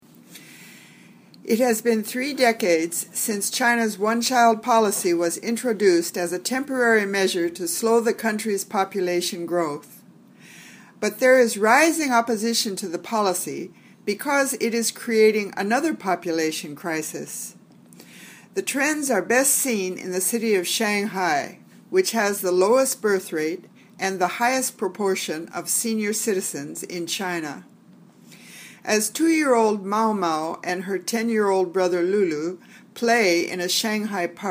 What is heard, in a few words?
Reading Drill